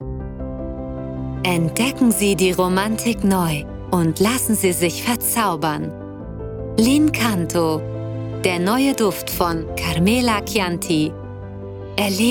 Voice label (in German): Parfum Werbung, sprecherin